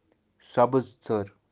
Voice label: "sabiztser tsar" greenfinch, kashmiri